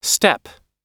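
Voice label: "step", American English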